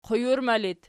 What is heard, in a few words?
"qujurma", Archi